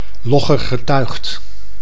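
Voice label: "loggergetuigd", Dutch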